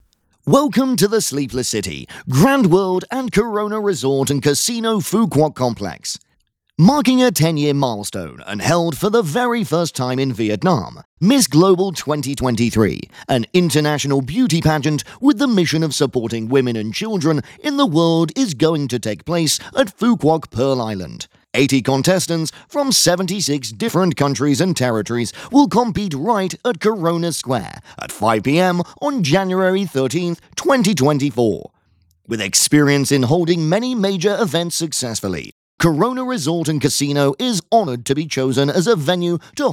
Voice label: thu am su kien English; Miss Global Corona Resort and Casino; thu am da ngon ngu